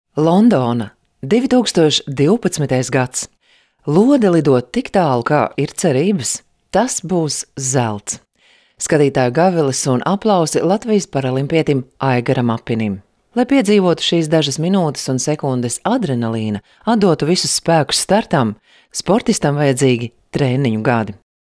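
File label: Latvian, female, narration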